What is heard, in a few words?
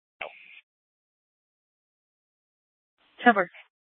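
Police Department North Dispatch, scanner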